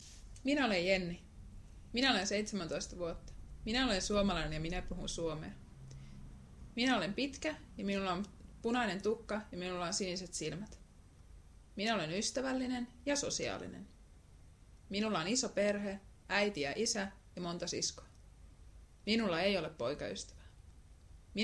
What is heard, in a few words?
listening finnish